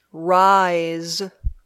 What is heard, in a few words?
"rise", English